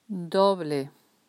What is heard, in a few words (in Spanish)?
"doble", Spanish